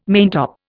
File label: "maintop", Indian